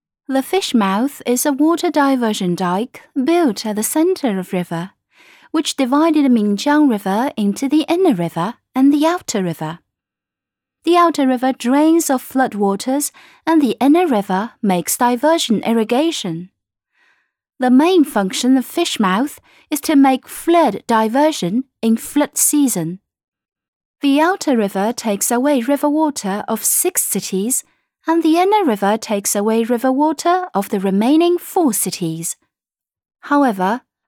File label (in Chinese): 英景点介绍